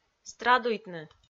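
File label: "страдуйтны", Komi, female; kpv; audio dict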